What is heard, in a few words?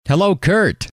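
hello, male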